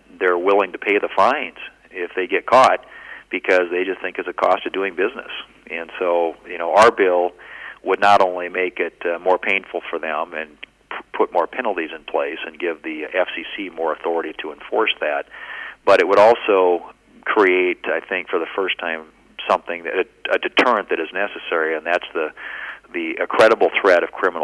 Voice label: robocall